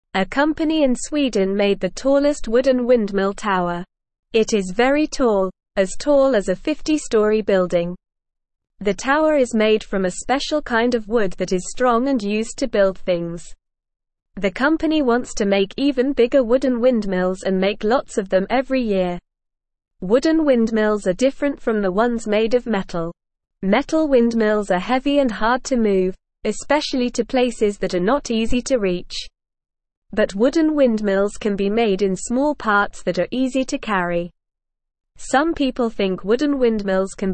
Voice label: English Lower Intermediate NORMAL Reading; Tall wooden windmills are better for the air; English Newsroom

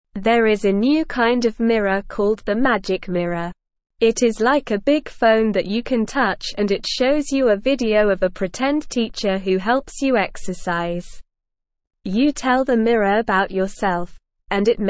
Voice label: Beginner SLOW Reading, English; English Newsroom

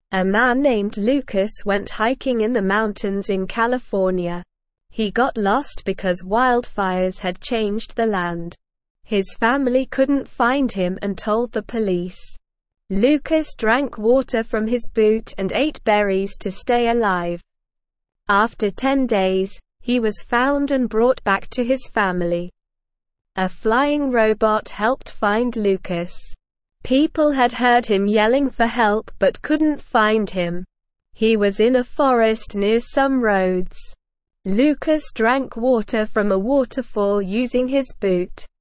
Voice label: English Newsroom Beginner SLOW Reading; Lost Hiker Survives in Mountains with Help

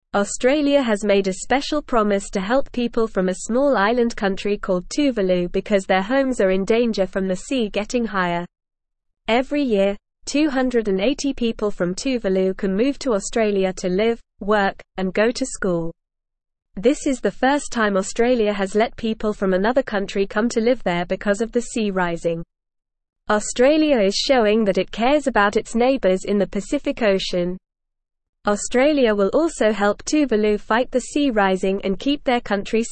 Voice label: English Lower Intermediate NORMAL Reading; Australia Helps Tuvalu as Water Rises Offers Supp; English Newsroom

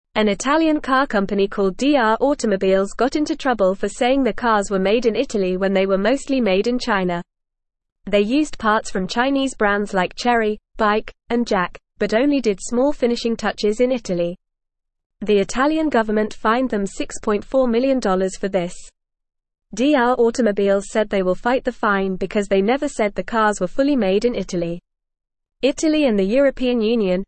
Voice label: English FAST Reading; Italian Car Company Fined for Misleading Customers

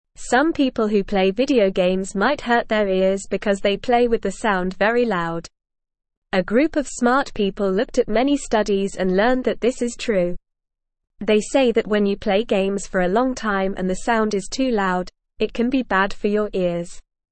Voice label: English Beginner NORMAL Reading; English Newsroom

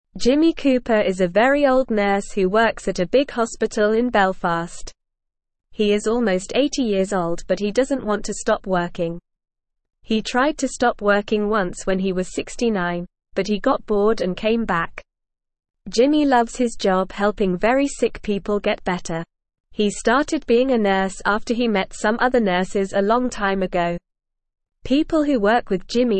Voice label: English Beginner NORMAL Reading; English Newsroom; Jimmy Cooper The Very Old Nurse Who Keeps Working

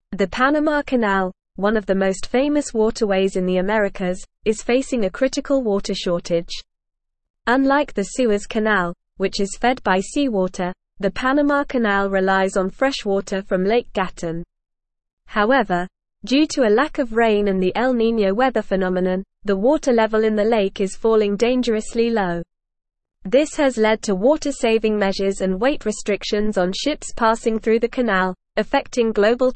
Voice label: English Advanced NORMAL Reading; English Newsroom; Panama Canal Faces Critical Water Shortage Threatens Trade

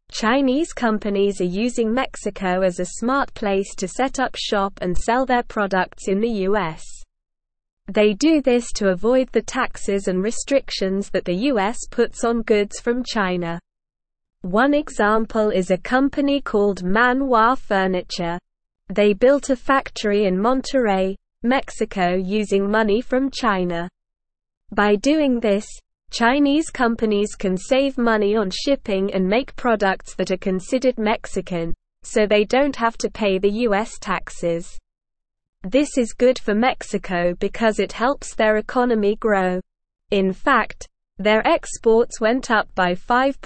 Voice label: English SLOW Reading

